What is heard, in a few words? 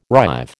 "rive", American